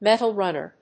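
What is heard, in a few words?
"metal runner", English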